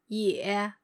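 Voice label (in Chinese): "ye", Mandarin Chinese, female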